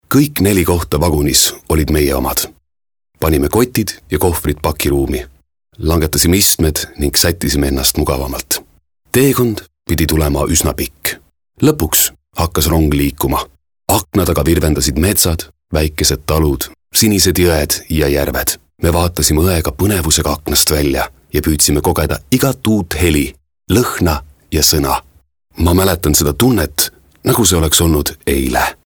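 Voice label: Estonian male example Casual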